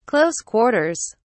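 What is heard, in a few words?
"close quarters", British English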